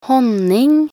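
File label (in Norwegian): "honning", Norwegian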